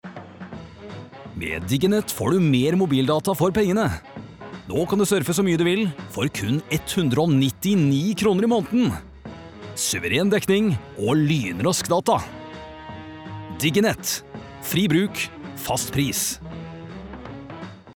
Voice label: no upbeat